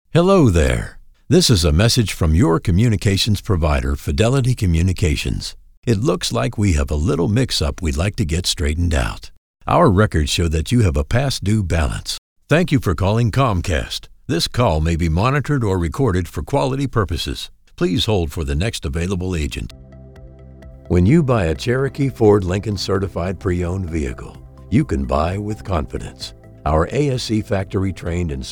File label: IVR